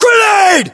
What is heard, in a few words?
inform incoming grenade, battlechatter, English, US